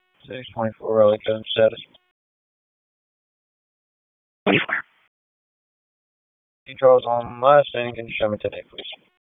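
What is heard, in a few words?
Police Southwest District Dispatch; Raleigh; wake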